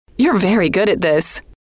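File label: you Are Very Good At This, female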